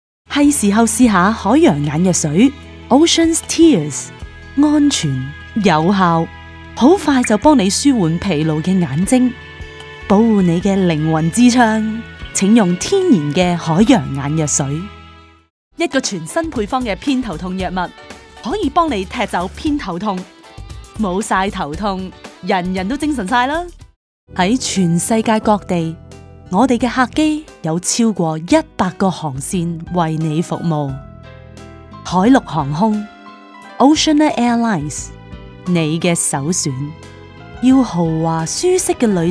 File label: Canto